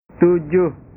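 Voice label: "tujuh", Indonesian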